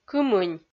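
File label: "кымынь", Komi, female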